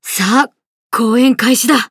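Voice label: start, Japanese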